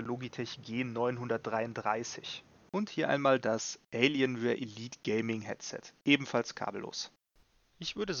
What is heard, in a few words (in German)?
Arctis 1 Mikrofon Test